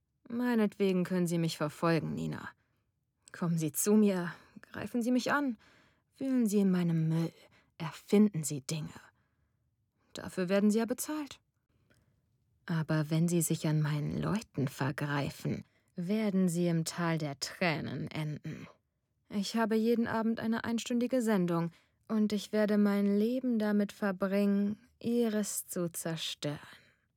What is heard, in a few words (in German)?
Thriller Drohung, stimmen weiblich